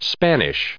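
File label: "Spanish", US English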